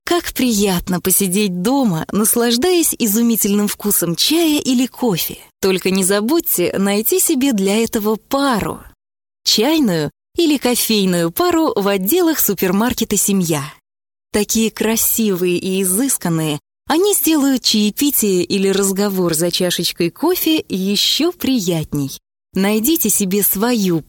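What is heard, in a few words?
female, Russian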